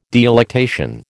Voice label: "delectation", American

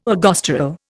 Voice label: "ergosterol", Indian

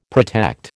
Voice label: "protect", American

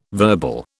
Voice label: "verbal", British

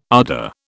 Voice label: "ardour", British

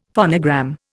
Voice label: "phonogram", Indian; Dictionary

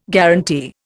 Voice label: "guarantee", Indian

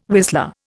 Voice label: "whistler", Indian

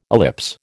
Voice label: "ellipse", American

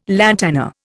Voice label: "lantana", Indian

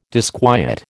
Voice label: "disquiet", American

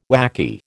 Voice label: "whacky", American

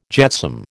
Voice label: "jetsam", American

